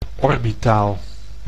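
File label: "orbitaal", Dutch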